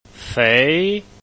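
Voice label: "fei", Chinese, tone 2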